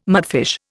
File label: "mudfish", Indian